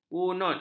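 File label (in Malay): "bunut", Malay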